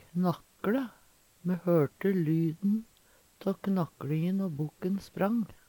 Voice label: knakkle, Numedalsmål

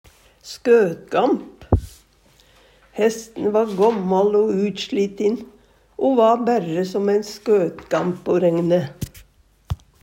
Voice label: "skøtgamp", Numedalsmål; Substantiv hankjønn

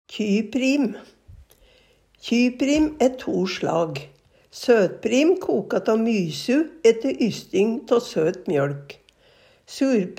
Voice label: kjyprim, Numedalsmål

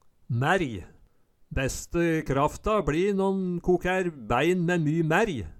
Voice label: mærj, Numedalsmål

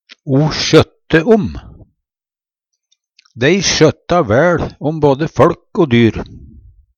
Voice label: sjøtte om, Numedalsmål; å ta vare på, ha omsorg for; Dei sjøtta væL om både føLk o dyr.; Uttrykk

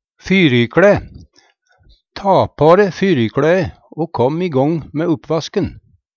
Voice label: "fyrikLæ", Numedalsmål; Ta på re fyrikLæe o kåm igång mæ uppvasken.

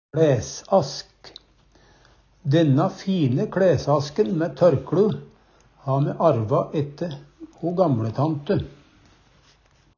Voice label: "kLæsask", Numedalsmål; Dænna fine kLæsasken mæ tørkLu ha me arva ætte ho gamLetante.

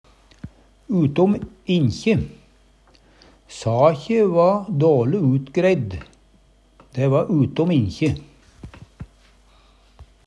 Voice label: utom inkje, Numedalsmål; nær ingenting; Sakje va dåle utgreidd. Dæ va utom inkje.; Uttrykk

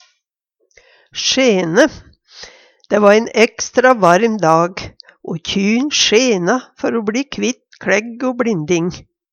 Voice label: sjene, Numedalsmål; Eksempel på bruk Dæ va ein ekstra varm dag, o kjyn sjena før o bLii kvitt kLægg o bLinding.

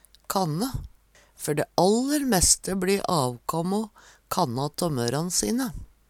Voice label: kanne, Numedalsmål

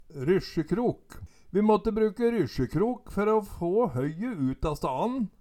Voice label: "ryssjekrok", Numedalsmål

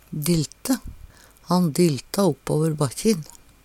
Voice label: "dilte", Numedalsmål

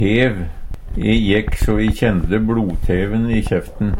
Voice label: tev, Numedalsmål; Substantiv hankjønn; E jekk so e kjende blodteven i kjeften.

